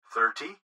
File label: Gps Voice, Male; Thirty; Speaker Adult Male; Recorded Voice; Blastwave